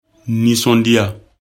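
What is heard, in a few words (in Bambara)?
"nisondiya", Bambara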